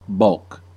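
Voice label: "bulk", American English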